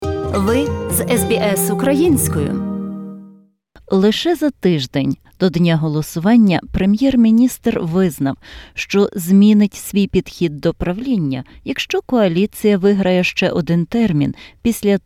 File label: ukrainian; Скотт Моррісон: «Мені доведеться змінити дещо із того, як я працюю...»; SBS Ukrainian